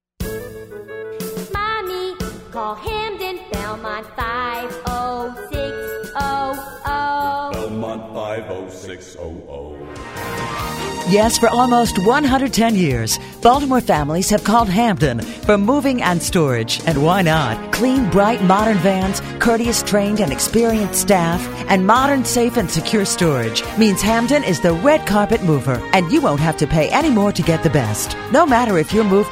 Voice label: radio spot; mommy call